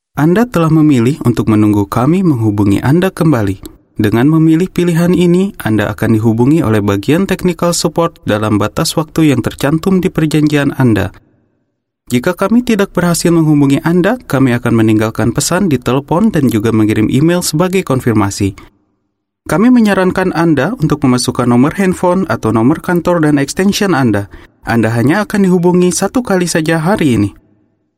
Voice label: Bahasa Indonesia Male